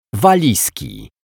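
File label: "walizki", Polish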